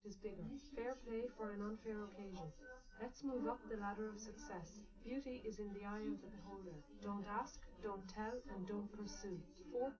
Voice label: female live rec; SiSEC11; underdetermined

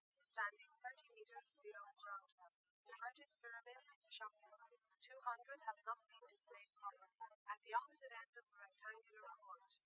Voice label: female, live rec; SiSEC08; SiSEC underdetermined

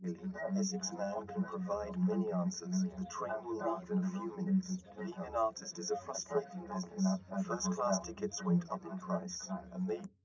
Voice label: male4; SiSEC10; underdetermined

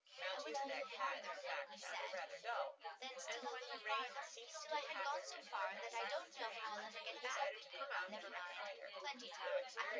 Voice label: female synthconv

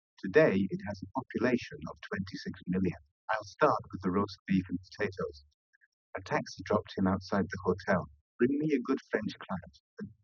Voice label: male; SiSEC10; underdetermined

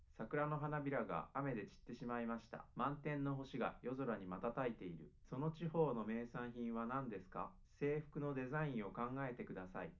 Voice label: male live rec 5cm; SASSEC07

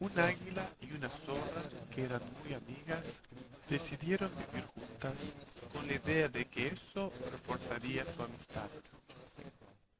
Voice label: male inst src; SiSEC08; SiSEC underdetermined

